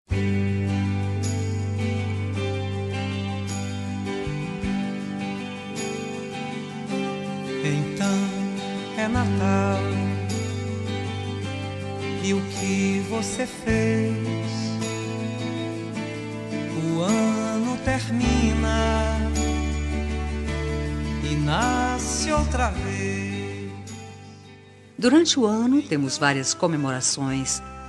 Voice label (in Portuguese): natal neutra fem, Telemensagem de Natal para Pessoa Especial – Voz Feminina